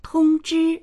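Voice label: "tong1zhi1", Chinese; tōngzhī; 通知; 通知する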